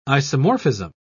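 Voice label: "isomorphism", British English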